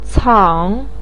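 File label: "cang" third tone, Mandarin Chinese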